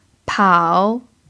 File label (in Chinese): "pao3", f; chinese